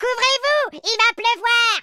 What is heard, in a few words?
grenade, French; speech